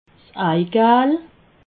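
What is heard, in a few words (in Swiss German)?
"eigal", Alsatian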